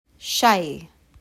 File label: in Arabic